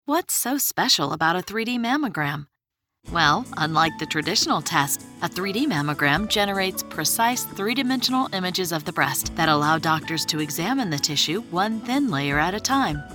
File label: Radio Spot